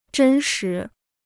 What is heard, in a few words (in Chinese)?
"真实", Chinese